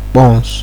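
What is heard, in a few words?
"kpons", Nigerian Pidgin, male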